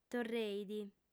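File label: "torreit", lingua sarda, female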